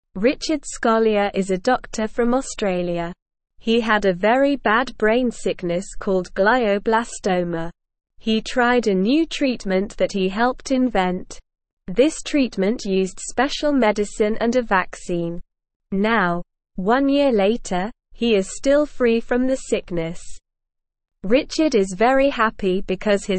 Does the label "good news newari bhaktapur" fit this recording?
No